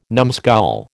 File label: "numskull", American